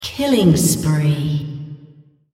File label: female On Killing Spree